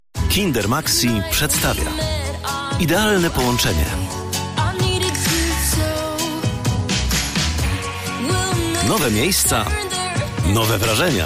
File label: Polish; PICK A VOICE COM DEMO